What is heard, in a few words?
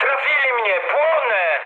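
on fire, mood med, Polish speech; War Thunder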